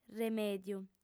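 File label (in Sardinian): "remèdiu", female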